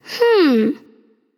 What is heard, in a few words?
Thinking; smite; merlin